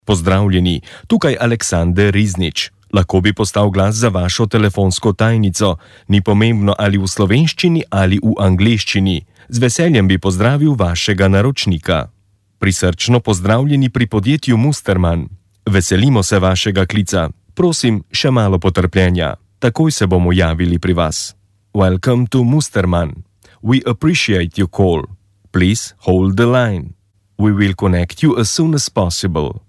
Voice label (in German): Sprecher Native speaker